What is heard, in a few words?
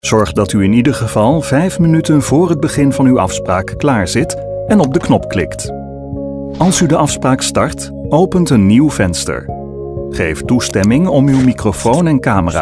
nl vo explainer calm informative